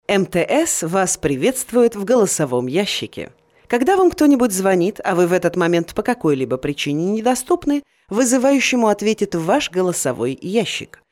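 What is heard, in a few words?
Female Russian ivr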